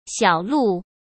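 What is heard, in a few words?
"小路", Mandarin Chinese; hsk; chinese-flashcards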